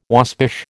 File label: "waspish", American